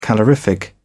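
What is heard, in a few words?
"calorific", British English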